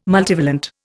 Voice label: "multivalent", Indian